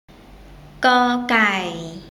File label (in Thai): "ก ไก่", Thai